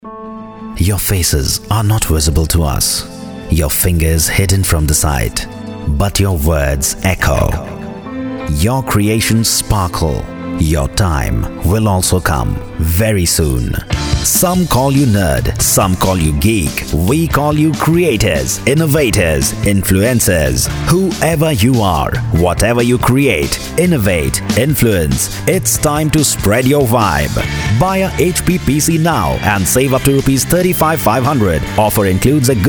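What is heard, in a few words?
English M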